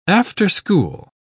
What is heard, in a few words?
"after school", uk